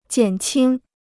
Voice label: "减轻", Chinese

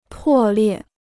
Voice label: "破裂", Chinese

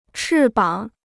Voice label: "翅膀", Chinese

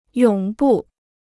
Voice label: "永不", Chinese

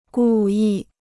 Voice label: "故意", Chinese

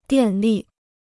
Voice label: "电力", Chinese

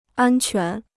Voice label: "安全", Chinese

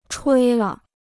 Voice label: "吹了", Chinese

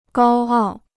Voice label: "高傲", Chinese

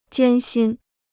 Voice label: "艰辛", Chinese

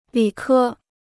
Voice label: "理科", Chinese

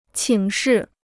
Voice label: "请示", Chinese

